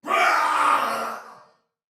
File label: Death Soldier Male, Battle; Dialog; Barks; Sinecure